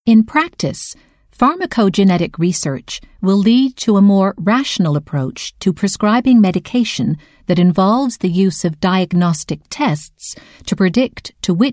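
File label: Canadian English Medical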